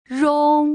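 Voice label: "rong", Putonghua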